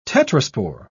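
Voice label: "tetraspore", English, British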